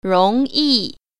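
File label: "rong2yi4", Mandarin Chinese Tone Pair Drills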